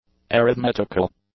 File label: "arithmetical", English; adjectives